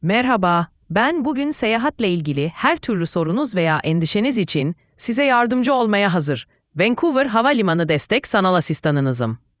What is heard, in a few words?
question turkish